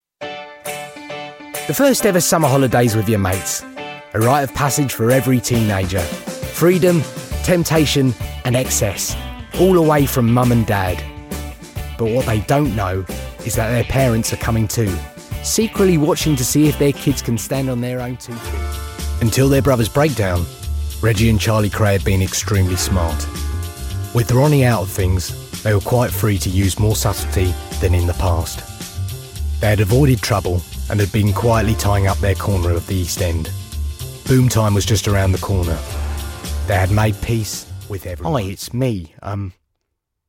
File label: Narration